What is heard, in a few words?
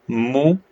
short voyels arabic alphabet